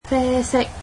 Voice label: "brown", cantonese; adjectives